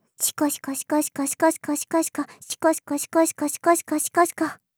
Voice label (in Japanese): シコシコオンリー できるだけ 速く